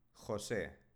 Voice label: spanische jungennamen jose

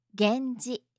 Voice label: "genji", japanische maedchennamen

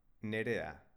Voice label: "nerea", baskische

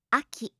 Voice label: "aki", japanische maedchennamen

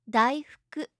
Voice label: "daifuku", japanische maedchennamen

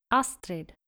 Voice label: "astrid", skandinavische maedchennamen

